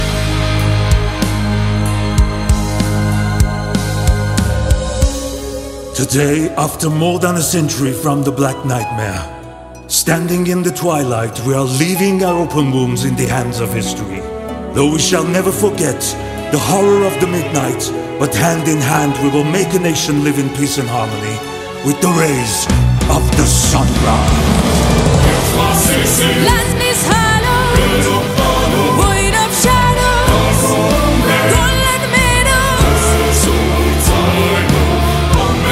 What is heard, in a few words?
english others